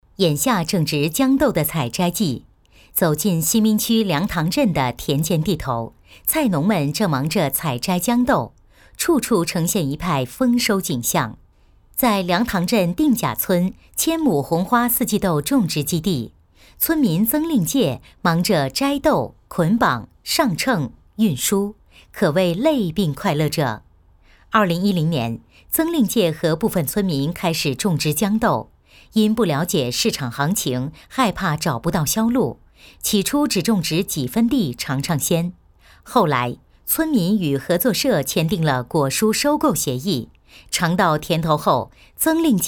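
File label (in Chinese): 新闻亲切平和; 豇豆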